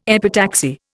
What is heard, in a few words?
"epitaxy", Indian